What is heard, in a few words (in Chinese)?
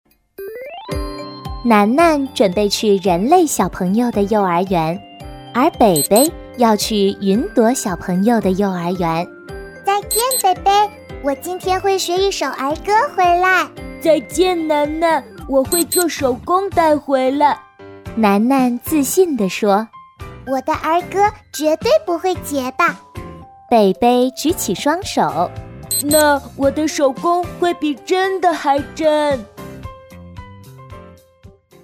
女 动画片 旁白以及各种角色; 《小云熊》